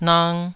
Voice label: "nang2", Taiwanese